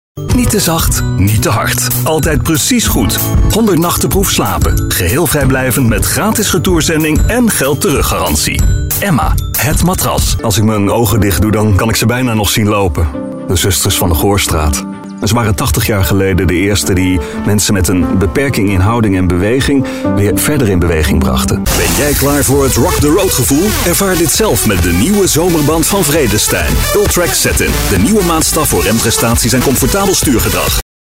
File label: commercials